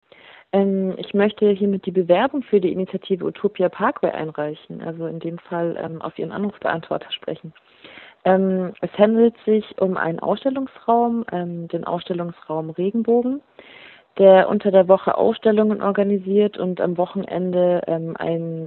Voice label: Voicemail